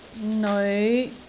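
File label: "neoi5", Cantonese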